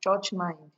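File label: "church mind", Nigerian Pidgin, female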